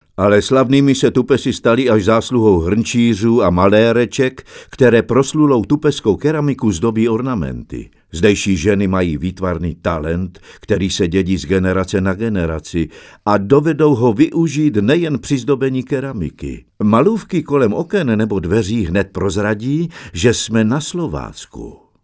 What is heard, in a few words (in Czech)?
ukázka čeština